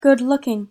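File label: "good-looking", English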